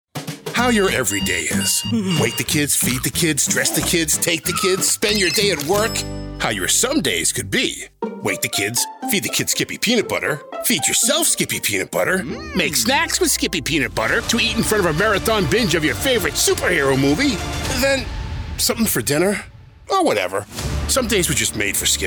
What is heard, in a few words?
Commercial